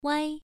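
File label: "wai", Mandarin Chinese syllable, first tone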